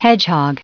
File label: "hedgehog", en-us recite